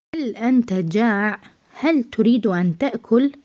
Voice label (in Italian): Arabo